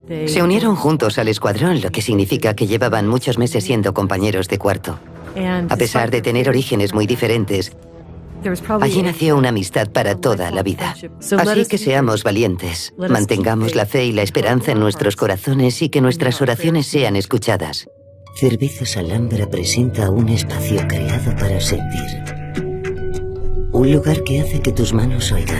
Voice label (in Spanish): Voz femenina grave